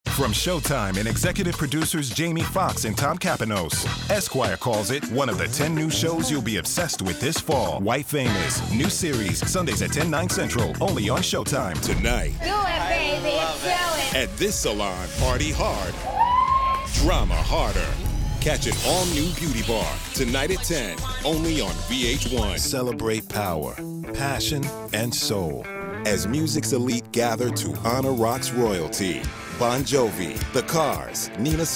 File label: promo